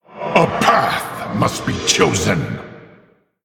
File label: Male Orc Time; Thrall Deathknight